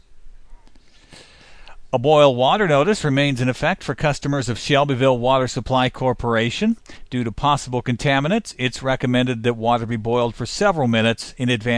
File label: boil water notice; shelbyville